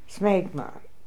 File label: "Smegma", pronounciations; Fourth Way; Beelzebub